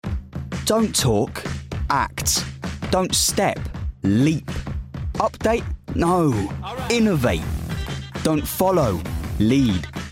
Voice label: Commercials, Young, smart, fun and versatile. Good RP.; Teens-20s